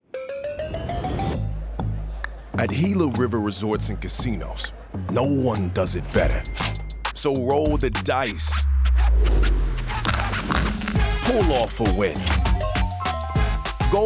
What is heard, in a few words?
Commercial